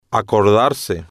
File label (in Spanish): "acordarse" Spanish